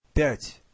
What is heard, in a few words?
"5", Russian